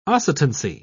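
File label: "oscitancy", British English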